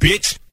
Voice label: "bitch", male; Voice

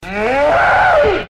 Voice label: "row", voice

